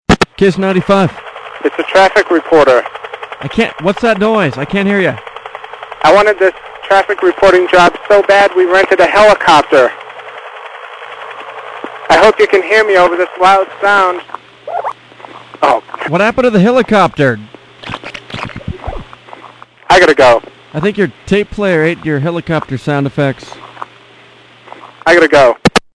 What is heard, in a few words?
traffic reporter